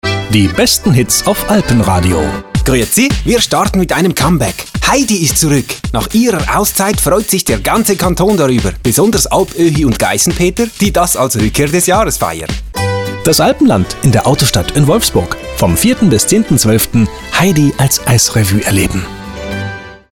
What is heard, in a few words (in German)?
Schweizer Akzent; VW